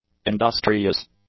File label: "industrious", English; adjectives